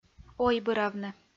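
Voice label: "ойбыравны", Komi, female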